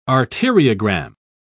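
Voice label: "arteriogram", English, British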